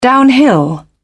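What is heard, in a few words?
"downhill", British English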